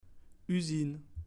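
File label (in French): "Usine", French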